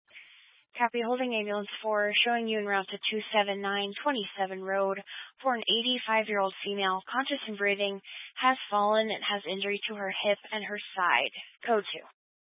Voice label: Fire Dispatch